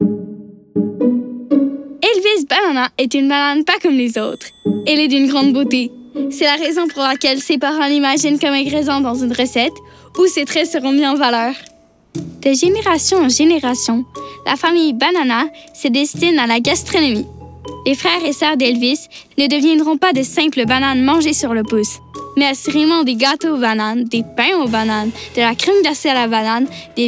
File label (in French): Narration FR; FR 2022 - Narration